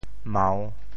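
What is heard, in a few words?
"mau3", Teochew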